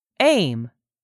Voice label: "aim", English; 3000 words